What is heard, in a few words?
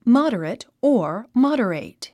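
"moderate", English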